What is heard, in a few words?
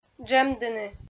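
"джӧмдыны", Komi, female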